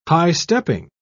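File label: "high-stepping", English, British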